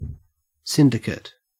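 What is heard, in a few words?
"syndicate", Australian English; pronunciation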